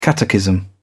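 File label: "catechism", British English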